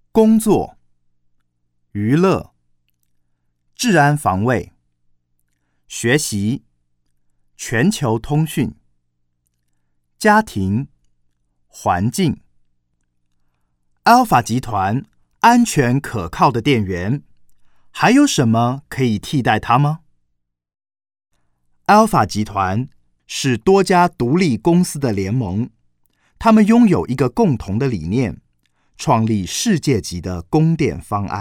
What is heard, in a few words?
Chinese Mandarin, Chinese-Mandarin voice overs, Male